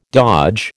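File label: "dodge", American English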